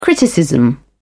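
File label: "criticism", British English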